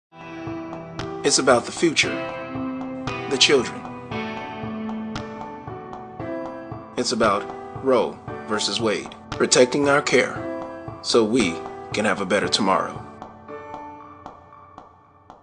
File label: VOICE OVER